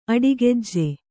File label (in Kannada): "ಅಡಿಗೆಜ್ಜೆ", Kannada